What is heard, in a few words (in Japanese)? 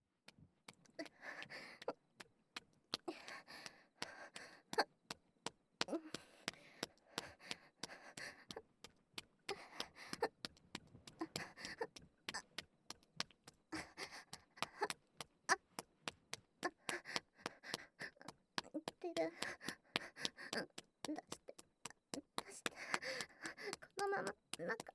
添い寝; ASMR; 失踪少女と一緒に添い寝